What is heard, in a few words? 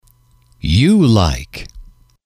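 you like, male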